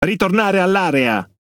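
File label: Italian speech Quick Commands Ally Point; World Of Warships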